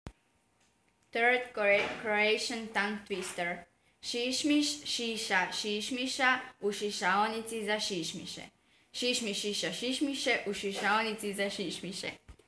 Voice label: Croatian